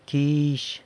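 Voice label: "quizh", San Lucas Quiaviní Zapotec; will pay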